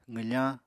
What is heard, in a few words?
"qwfañ", Apatani